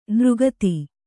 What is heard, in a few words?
"ನೃಗತಿ", Kannada; nř gati